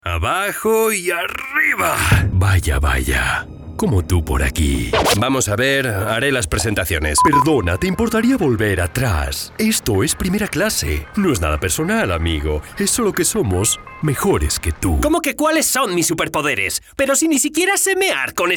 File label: CHARACTER SPANISH MALE